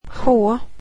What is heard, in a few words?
"h", swedish alphabet